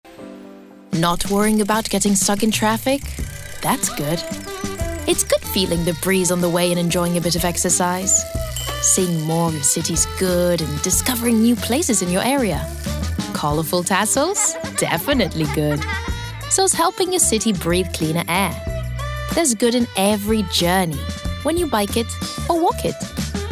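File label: Spanish, female, English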